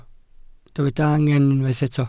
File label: "angen", Welsh